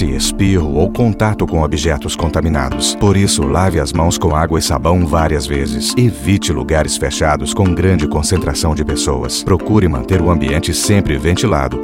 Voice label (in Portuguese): spot gripe